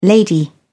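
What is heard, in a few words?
"lady", British English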